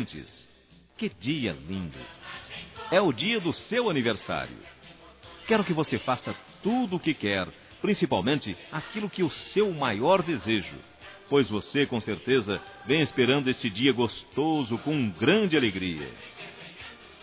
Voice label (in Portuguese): Criança Geral Masculino; Aniversários; Aniv Familiar